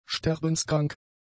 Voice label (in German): "sterbenskrank", German; adjectives